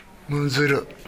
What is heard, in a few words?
"muzuru", minamiyamagata